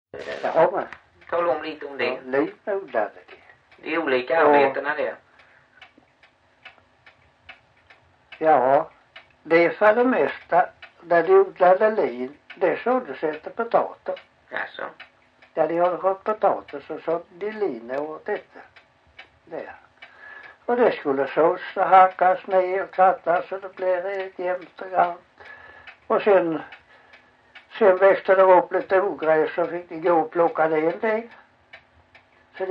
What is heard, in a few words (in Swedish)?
Backaryd BLEK, dialekter; Folkminnen; Svenska sagor